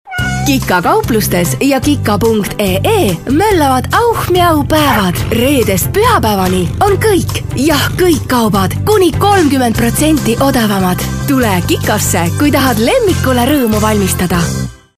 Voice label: (MOT) Estų balsas; moteris

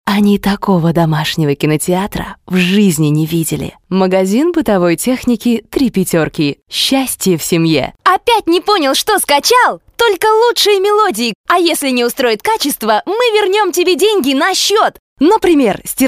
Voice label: MOT Rusiškas balsas, moteris; rusu

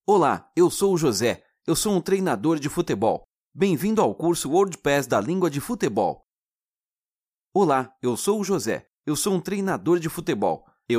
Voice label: (VYR) Brazilu balsas, vyras

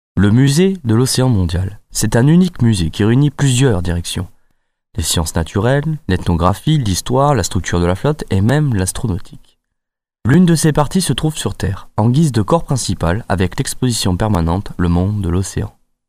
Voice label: VYR Prancuzu balsas, vyras

